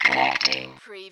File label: Robot voice, connecting; lynne publishing